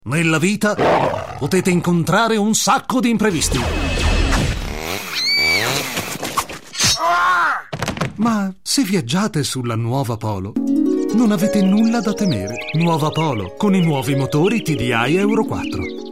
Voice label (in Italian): Radio Comunicato; Nuova Polo